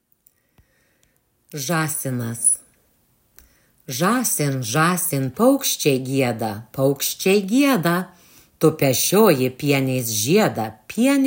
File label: zasinas narration